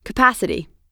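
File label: "capacity", US English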